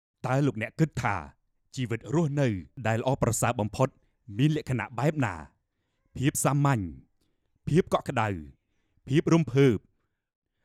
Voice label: TVC, khmer voice